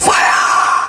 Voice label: "fiah", voice